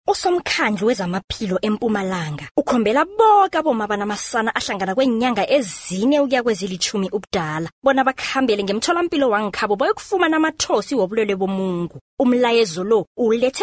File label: Ndebele female